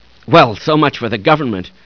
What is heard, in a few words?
nervous, hostage reactions; czero opforce